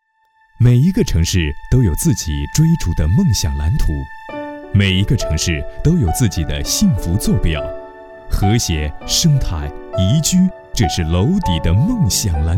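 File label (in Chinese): 男国大音-政府宣传片-自然-舒缓; 创建国家卫生城市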